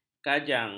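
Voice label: "kajang", Malay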